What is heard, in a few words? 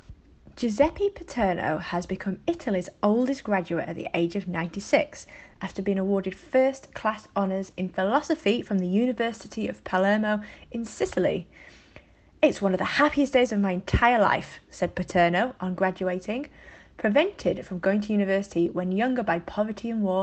News British